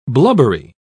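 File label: "blubbery", British English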